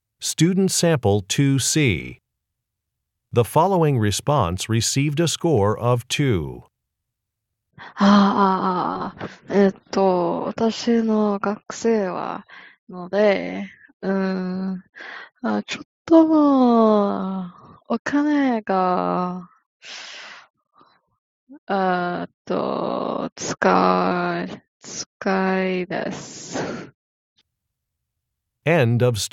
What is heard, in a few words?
Japanese conversation; AP Japanese Language and Culture